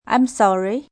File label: I'm Sorry, female; Etiquette